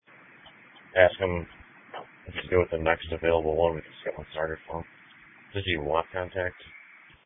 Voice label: Sheriff Dispatch